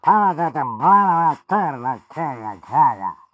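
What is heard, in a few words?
taunts, French; Pyro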